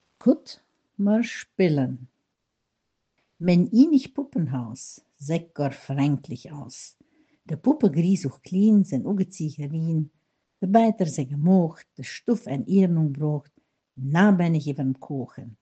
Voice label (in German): kinderverse, mundart; Kinderecke; Kut mer spillen